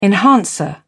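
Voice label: "enhancer", British English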